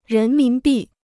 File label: "人民币", Chinese